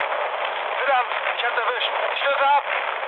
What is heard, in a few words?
aircraft destroyed, mood low, speech ge